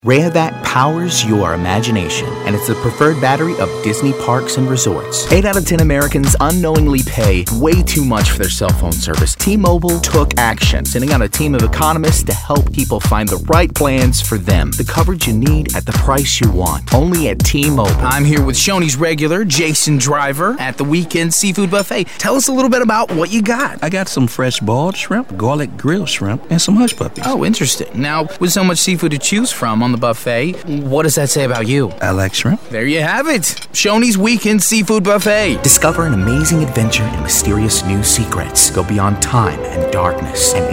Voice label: Commercials